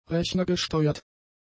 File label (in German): "rechnergesteuert", German; adjectives